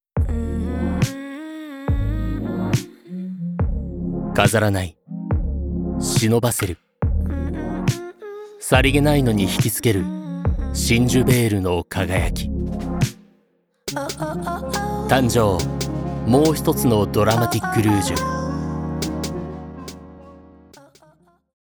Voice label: commercial